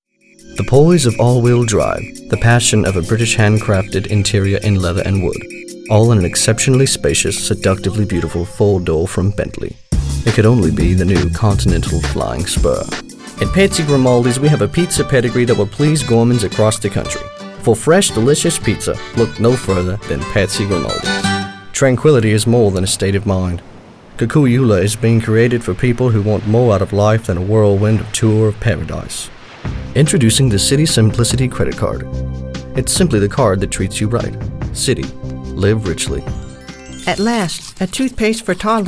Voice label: Eng, english males